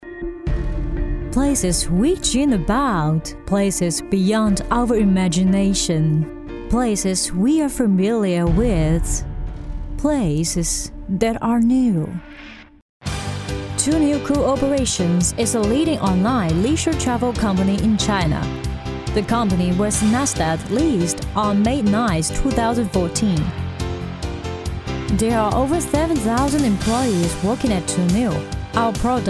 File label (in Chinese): 英语女 旅游海外宣传; 途牛